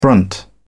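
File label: "brunt", English, British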